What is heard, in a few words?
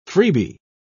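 "freebee", British English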